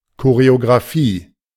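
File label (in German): "Choreographie", German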